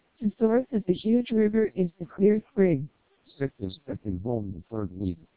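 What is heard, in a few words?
speech, SNR=10dB, NCSE Enabled, 600 bps TWELP; The NCSE integrated into the TWELP vocoder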